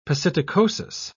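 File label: "psittacosis", British English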